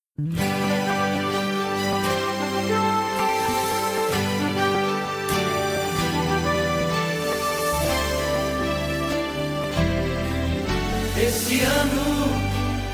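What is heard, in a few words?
male; ano nov neutro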